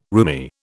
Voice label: "roomie", British